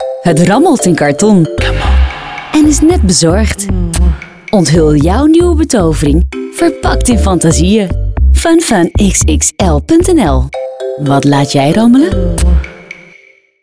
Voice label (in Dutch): Commercial; Fun Fun XXL 2017 Radio Continu